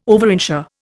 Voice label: "overinsure", Indian